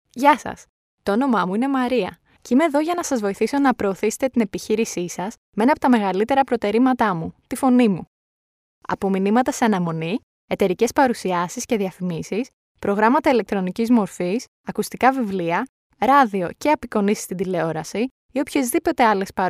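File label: Greek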